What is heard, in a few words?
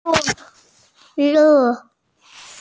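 "qi4qiu2", female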